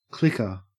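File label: "clicker", Australian English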